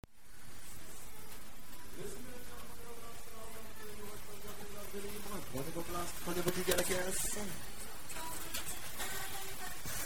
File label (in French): FForaine Annonce